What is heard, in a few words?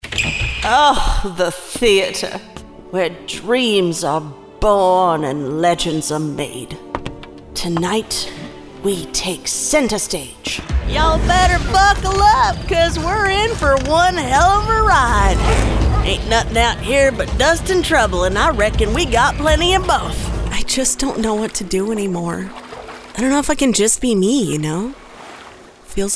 Animation